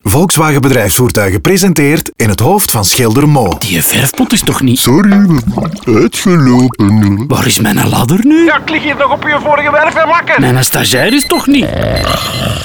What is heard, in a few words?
Radiospot; Volkswagen Bedrijfsvoertuigen; saloncampagne; voltage